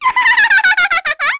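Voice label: Vox Happy, Korean; Lilia; kingsraid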